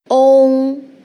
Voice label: "ong", nasal finals